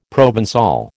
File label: "ProvenÇal", American